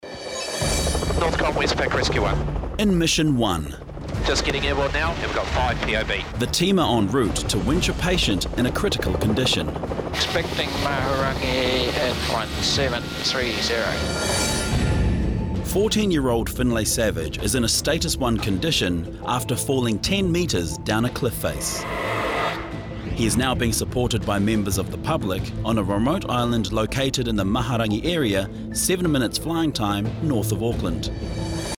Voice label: narration